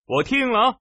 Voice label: ting, man